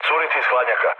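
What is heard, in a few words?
Serbian damaged water mood low, speech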